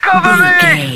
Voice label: radio voice male soldier cover me

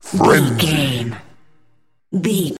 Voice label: announcer voice classic fps style frenzy

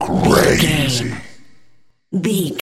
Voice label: announcer voice classic fps style crazy

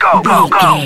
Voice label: radio voice male soldier go go go

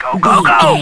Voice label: radio voice male soldier go go go

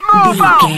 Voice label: radio voice male soldier move out

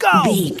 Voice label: voice male soldier go

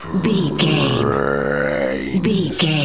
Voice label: zombie voice brains

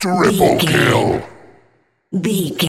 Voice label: announcer voice classic fps style tripple kill

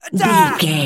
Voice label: voice male soldier attack

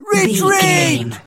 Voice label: voice male soldier retreat

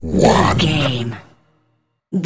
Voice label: announcer voice classic fps style one

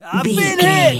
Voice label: voice male soldier i've been hit

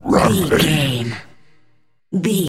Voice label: announcer voice classic fps style "rampage"

